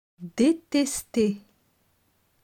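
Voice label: "Detester", French; grammaire; les articles definis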